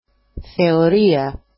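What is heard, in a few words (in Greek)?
"theoria", Greek; θεωρία; [θeo'ria]; theory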